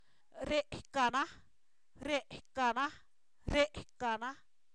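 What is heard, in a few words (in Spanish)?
se quema, Zapoteco, san miguel albarradas; verbos; INALI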